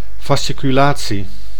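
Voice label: "fasciculatie", Dutch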